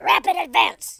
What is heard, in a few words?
"hurry", speech